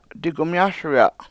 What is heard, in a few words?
"dIgumyASuya7" I Am Bathing, Washo